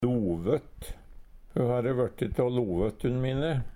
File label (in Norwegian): lovott, Numedalsmål; Substantiv hankjønn; Personleg utstyr, klede, sko